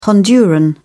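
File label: "honduran", British English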